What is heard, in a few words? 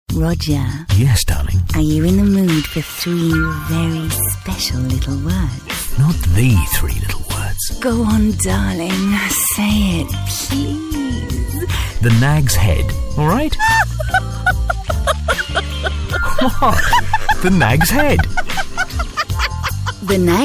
natural